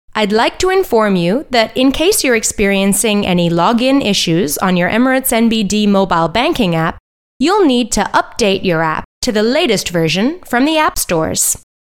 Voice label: English